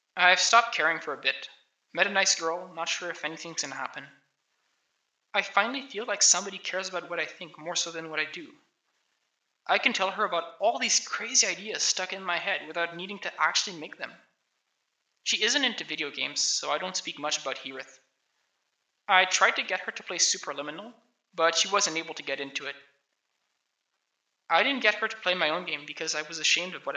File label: voice, narration